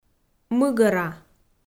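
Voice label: "мыгӧра", Komi, female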